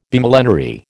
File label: "Bimillenary", American